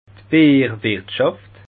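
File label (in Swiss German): "bierwirtschaft", Bas Rhin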